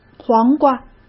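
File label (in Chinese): "黄瓜", Mandarin Chinese; hsk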